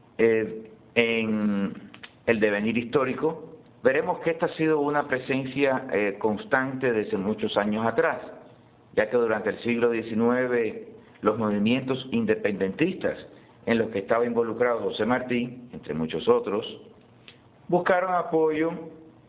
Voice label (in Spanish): Cubano Americano Miami Male